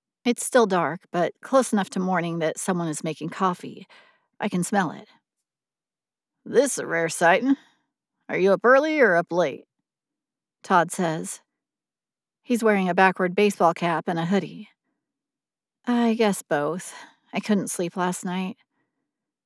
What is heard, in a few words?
YA Fiction 1st Person with Texas Accent Male